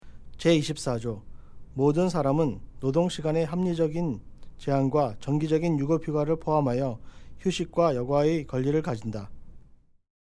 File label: Korean